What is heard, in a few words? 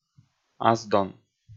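"асдон", Komi, male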